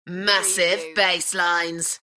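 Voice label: UK female, DJ vocals; Massive basslines; beanstalk audio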